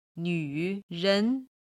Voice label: "nv3ren2", Chinese